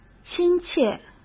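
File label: "亲切", Mandarin Chinese; chinese flashcards; hsk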